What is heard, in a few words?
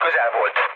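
Hungarian bomb failure mood low, speech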